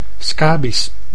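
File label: "scabies", Dutch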